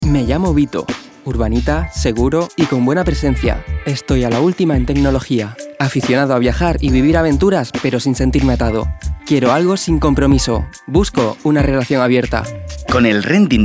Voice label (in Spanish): Mi Mowi masculino; bienvenidos a la conduccion abierta